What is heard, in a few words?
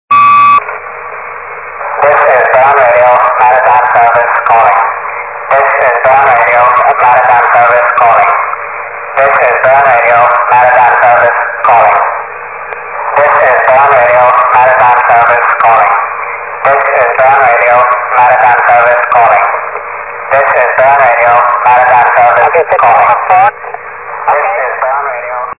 Bern Radio